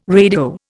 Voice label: "radio", Indian

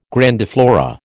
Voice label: "grandiflora", American

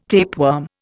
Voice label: "tapeworm", Indian

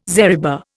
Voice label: "zariba", Indian

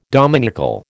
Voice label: "dominical", American

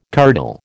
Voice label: "curdle", American

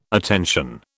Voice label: "attention", British

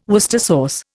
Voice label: "Worcester sauce", Indian

